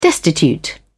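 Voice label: "destitute", British English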